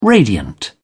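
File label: "radiant", British English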